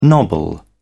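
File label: "nobble", British English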